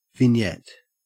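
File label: "vignette", Australian English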